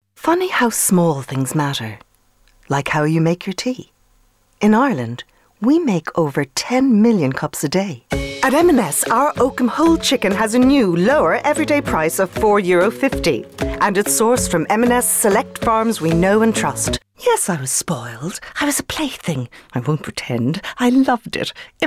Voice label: commercial